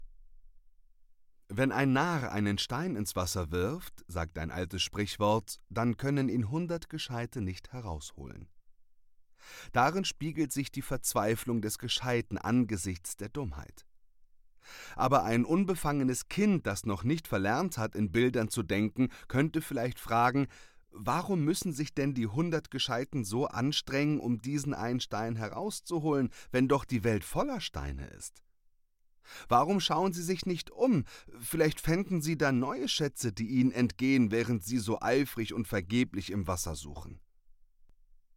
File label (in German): Sprachprobe Sachbuch